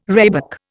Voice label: "rhebok", Indian